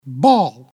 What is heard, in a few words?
ENG ball